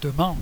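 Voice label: "tomorrow" French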